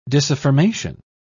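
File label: "disaffirmation", British English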